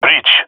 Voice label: "bridge", male; vo; agents; Source Model Packs; Counter Strike Model Pack; CS2; jungle male epic